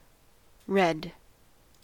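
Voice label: "read", US English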